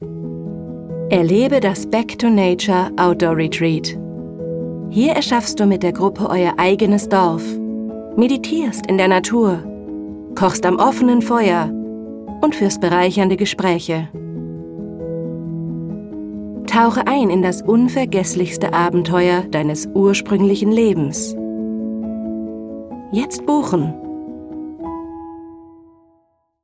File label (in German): Werbung zart